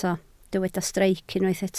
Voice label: "streic", Welsh

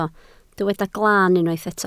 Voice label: "glaan", Welsh

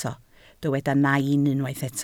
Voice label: "nain", Welsh

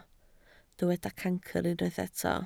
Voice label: "cancr", Welsh

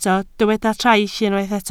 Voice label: "traill", Welsh

